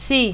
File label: "Yes", es, Adult, Male